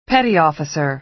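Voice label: "petty officer", American English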